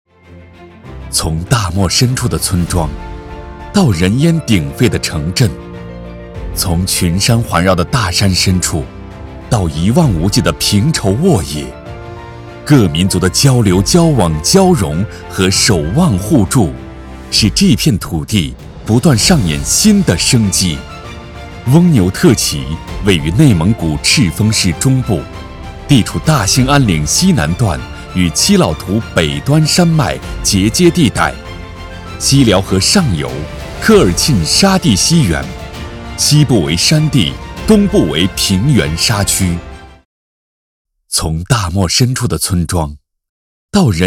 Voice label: 男 城市宣传 积极 大气 温暖

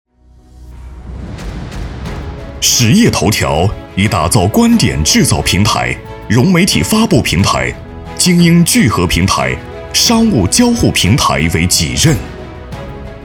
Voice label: 男 专题宣传 大气力度; 实业头条

